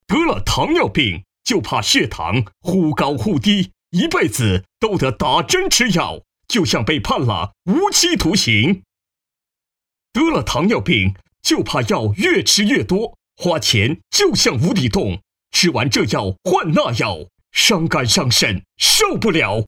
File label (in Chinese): 男 广告 电视购物